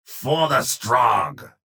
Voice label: vo chatter positive; strogg infiltrator; frag